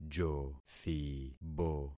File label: "jothibo", concat syllables; Affixing experiment